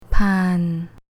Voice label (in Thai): "Paan", Thai